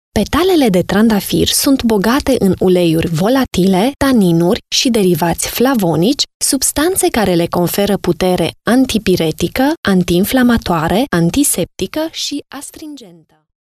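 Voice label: Romanian, female, narration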